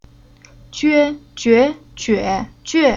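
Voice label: "Jue", Chinese syllable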